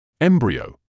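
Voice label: "embryo", British English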